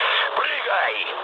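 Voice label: Russian bailout mood med, speech; War Thunder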